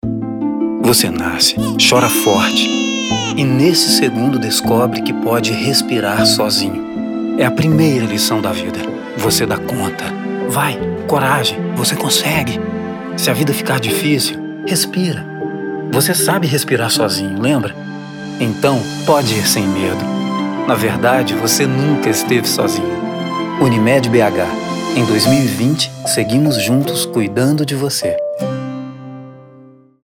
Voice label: spot; unimed bh; fim de ano